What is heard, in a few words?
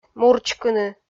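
"мурчкыны", Komi, female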